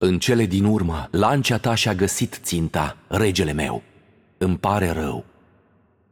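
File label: voice over, Romanian; Xin Zhao; game outro; bloom minigame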